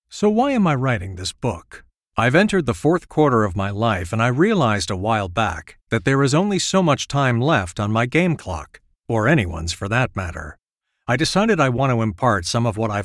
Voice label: english american audiobooks; American voice actor